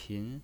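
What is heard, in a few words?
"pin" second tone, pinyin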